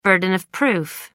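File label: "burden of proof", British English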